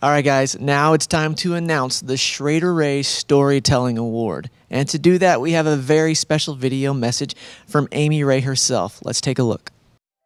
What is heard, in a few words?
announcer